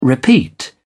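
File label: "repeat", British English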